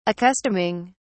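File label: "accustoming", British English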